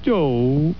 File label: Soft d'oh; Homer